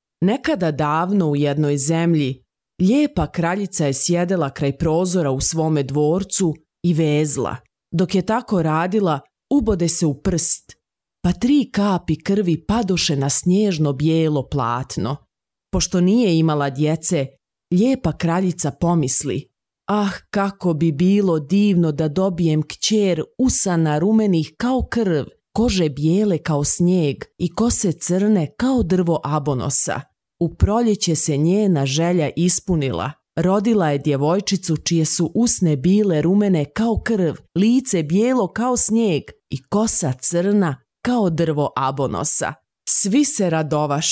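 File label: bosnian female voice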